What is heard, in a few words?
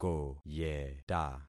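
"koyeta", concatenated syllables; Affixing experiment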